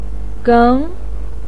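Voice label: "geng", Mandarin Chinese, second tone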